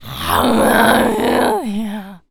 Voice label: Zombies Waitress Taunt; zps